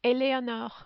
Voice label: "Eleonore", French

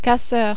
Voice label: "casseur", French

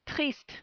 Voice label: "triste", French

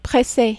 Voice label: "presse", French

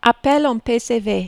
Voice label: "appel a pcv", French

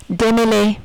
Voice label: "demeler", French

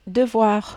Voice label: "devoirs", French

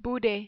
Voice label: "bouder", French

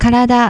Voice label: "karada", Japanese

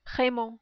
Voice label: "Raymond", French

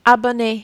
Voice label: "abonner", French

